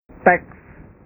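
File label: "teks", Indonesian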